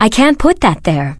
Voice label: bloodelf female err ammo only